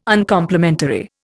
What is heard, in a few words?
"Uncomplimentary", Indian